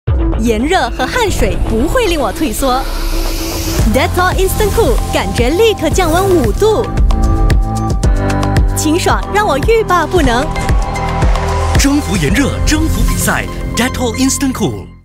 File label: Mandarin Character, Style: Sunshine, Young & Fun; Hair Product